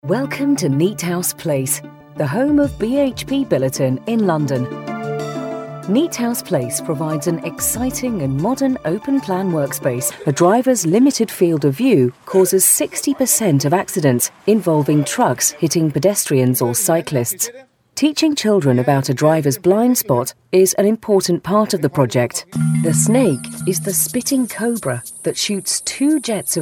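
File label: British corporate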